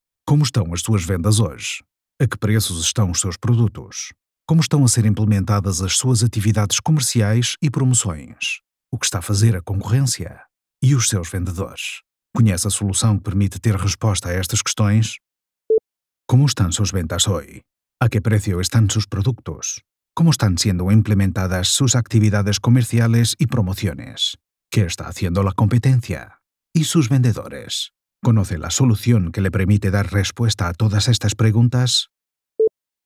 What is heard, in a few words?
Narration PT ESP ENG